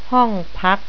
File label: "hong-naam", Thai